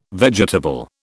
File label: "vegetable", British